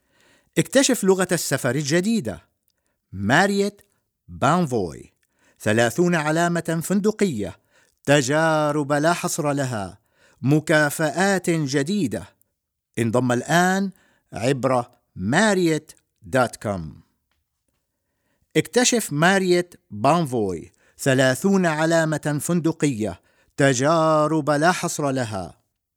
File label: Arabic M